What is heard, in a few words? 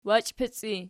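"wachipic" ergative, Archi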